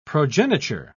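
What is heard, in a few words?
"progeniture", British English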